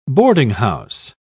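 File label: "boardinghouse", British English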